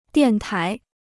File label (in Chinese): "电台", Chinese; diàn tái; transmitter-receiver; broadcasting station